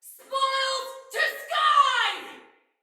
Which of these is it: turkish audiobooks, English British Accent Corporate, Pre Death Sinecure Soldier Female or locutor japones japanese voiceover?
Pre Death Sinecure Soldier Female